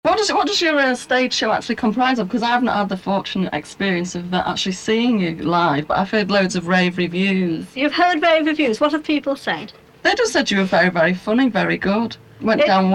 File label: a female drag radio extract